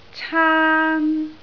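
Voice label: "caan1", Cantonese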